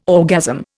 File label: "orgasm", Indian